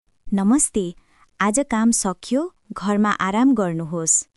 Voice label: Nepali, nepali greeting phrases; 日本の「お疲れ様です！」はネパール語でどう言う？職場で使える挨拶フレーズ集